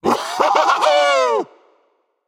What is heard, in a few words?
Howler Demon VOX Emote; Hun Batz